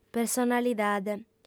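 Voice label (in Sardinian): "personalidade", Sardinian, female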